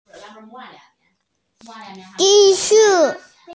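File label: "zǐ shǔ", Mandarin Chinese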